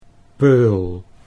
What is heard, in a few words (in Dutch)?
"peul", Dutch